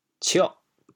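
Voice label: "cioh8", Teochew, chaoyang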